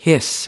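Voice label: "hiss", American English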